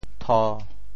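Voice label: "tho1", Teochew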